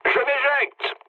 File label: bailout mood low, speech fr; War Thunder